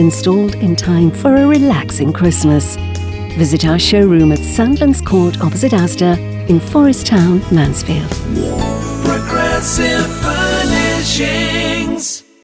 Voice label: LEATHER SOFA radio ad